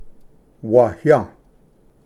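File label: "waya", Cherokee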